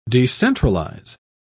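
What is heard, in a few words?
"decentralise", English, British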